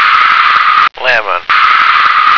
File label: "lemon", American